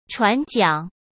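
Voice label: "船桨", Mandarin Chinese; hsk; chinese-flashcards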